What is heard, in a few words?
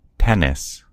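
"tennis", American English; gcompris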